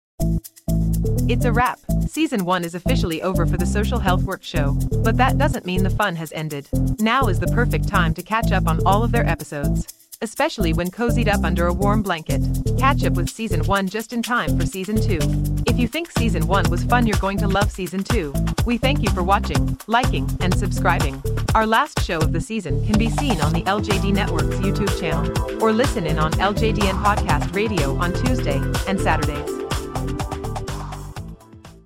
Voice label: commercial